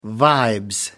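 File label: "vibes", British English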